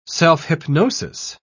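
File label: "self-hypnosis", British English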